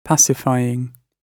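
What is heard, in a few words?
"pacifying", British English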